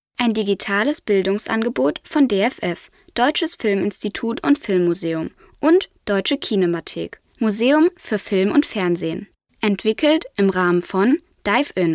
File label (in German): Audiodeskription Abspann; ProLim